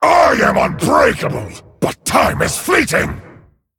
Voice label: Male Dragon Time